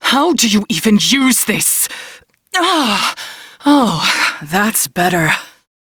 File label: Crew Heal Self, Female; Dialog; en; Warframe; Railjack Crew; New Loka